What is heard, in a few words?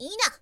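"ina", girl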